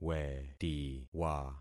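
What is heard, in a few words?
"wetiwa", concatenated syllables; Affixing experiment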